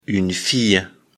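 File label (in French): "fille", French; afrikalan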